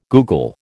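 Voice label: "googol", American English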